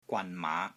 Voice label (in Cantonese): "GWAN3 MAA5", Wing Chun; Pole Stance; Wing Chun Elements Glossary